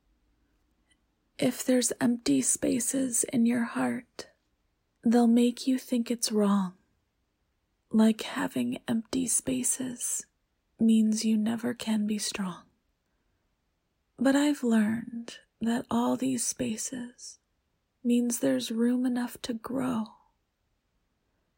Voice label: F Poetry Reading

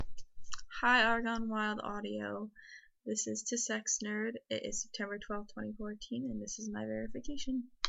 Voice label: silly verification, kinda nervous

